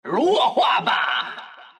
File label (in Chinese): attack, zh; Dota 2